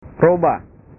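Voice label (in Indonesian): "roba", Indonesian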